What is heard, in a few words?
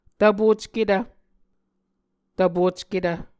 "tvpocketv", Muscogee